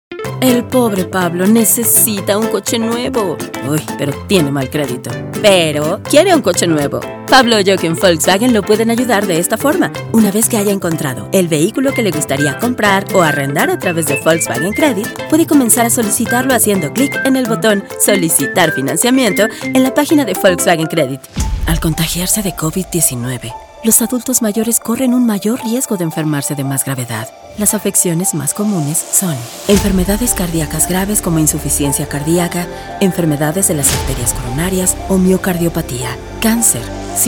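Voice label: Mexican Spanish F Commercial, female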